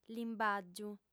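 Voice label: "limbàgiu", female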